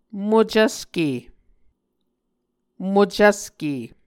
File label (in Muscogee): "mocvske", Muscogee